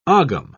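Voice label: "ogam", British English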